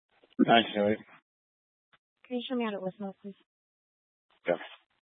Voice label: Police 1 Dispatch